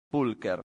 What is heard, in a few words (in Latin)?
"pulcher", latin; santillana